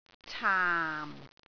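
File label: "caam4", Cantonese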